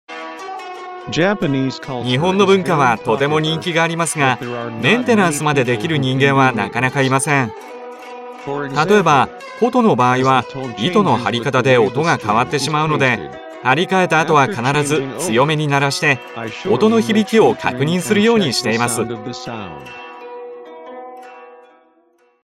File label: vo yng